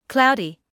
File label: "cloudy", English